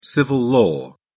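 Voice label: "civil-law", British English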